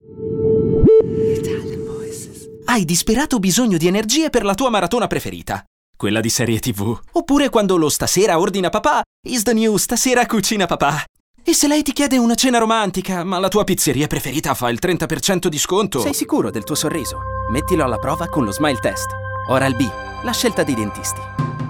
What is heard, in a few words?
ITALIAN VOICES Fresh Brilliant, male voices